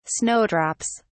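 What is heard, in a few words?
"snowdrops", British English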